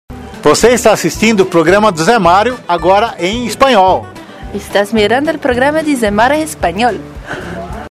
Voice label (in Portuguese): vinheta, espanhol